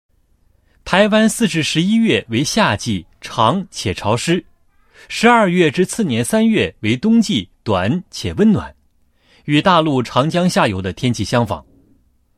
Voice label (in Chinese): 男 解说; 台湾旅游注意事项